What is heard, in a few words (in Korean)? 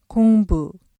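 "공부", Korean; 勉強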